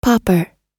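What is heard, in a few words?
"pauper", American English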